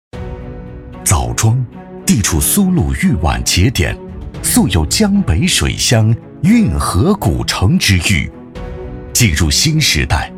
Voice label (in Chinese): 男 浑厚有力